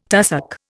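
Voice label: "tussock", Indian English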